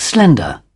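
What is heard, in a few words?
"slender", British English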